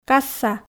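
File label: "касса", Komi, female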